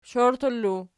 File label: "shoirtallu", Archi